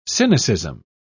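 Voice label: "cynicism", British English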